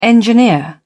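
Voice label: "engineer", British English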